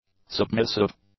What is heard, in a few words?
"submissive", English; adjectives